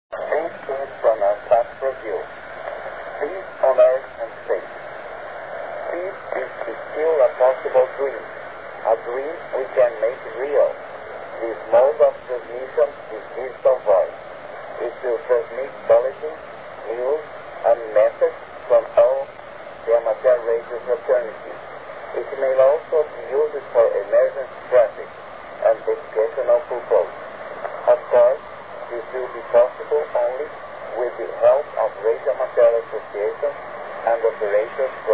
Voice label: english and german